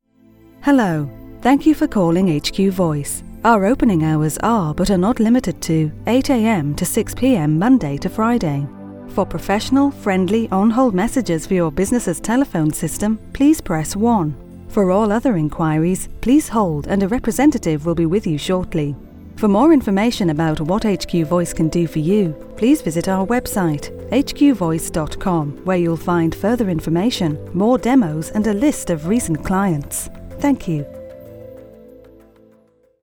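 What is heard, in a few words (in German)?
British English Kommentar Freundlich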